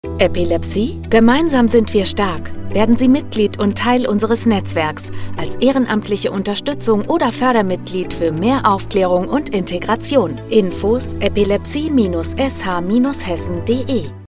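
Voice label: german promos, Female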